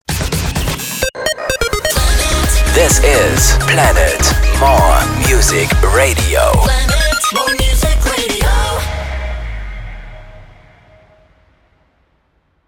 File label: station voice (jingle)